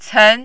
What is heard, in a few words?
"cen2", Mandarin